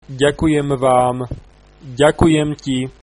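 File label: "thanks", Slovak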